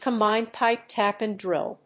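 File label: "combined pipe tap and drill", English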